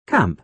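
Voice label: "camp", British English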